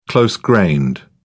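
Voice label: "close-grained", British English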